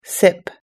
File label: "sip", US English